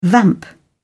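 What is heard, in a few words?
"vamp", British English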